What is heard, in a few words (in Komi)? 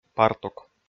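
"партук", Komi, male; audio dict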